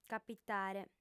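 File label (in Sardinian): "capitare", female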